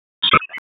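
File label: FIRE Operations, p25; FIRE OPS 2; wake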